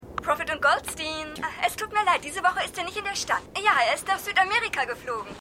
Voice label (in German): Telefonistin, Stimmproben; Seinfeld